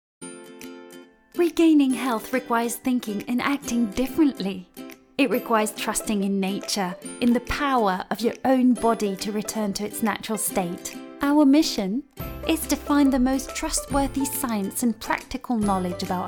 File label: FRIENDLY; LOFT VOICES